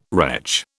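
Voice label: "retch", British English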